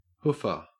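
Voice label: "hoofer", Australian English; pronunciations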